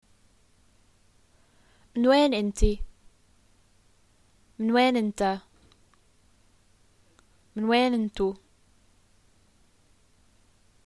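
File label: where are you from, Jordanian arabic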